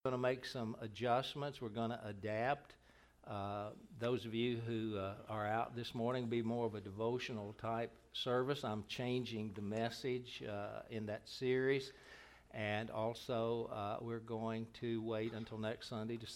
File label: announcements